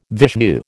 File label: "Vishnu", American